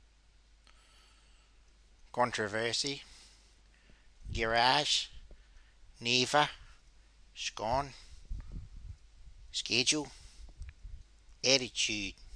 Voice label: adelaide south australia male